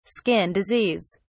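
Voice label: "skin disease", British English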